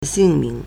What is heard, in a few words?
"xing4ming2", Chinese